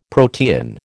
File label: "protean", American